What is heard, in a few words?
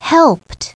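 "helped", English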